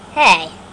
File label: "hey" cartoon